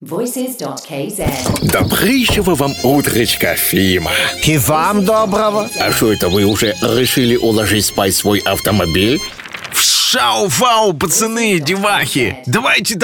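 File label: russian parody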